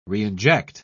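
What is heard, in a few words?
"reinject", British English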